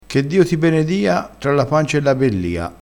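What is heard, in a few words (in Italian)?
"bellio", dialettale crespinese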